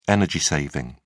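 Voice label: "energy-saving", British English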